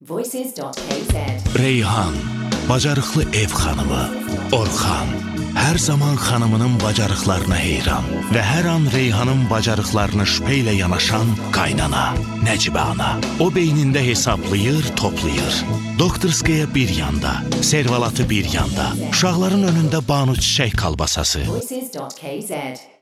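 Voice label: Voice Azerbaijani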